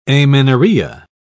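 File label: "amenorrhoea", English, British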